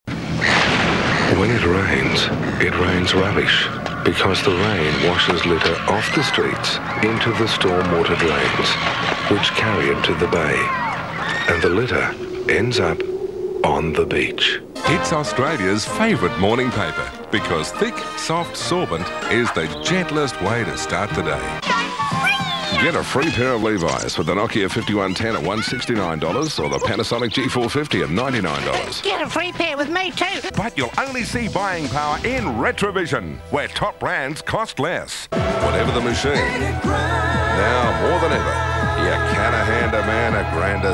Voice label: voice over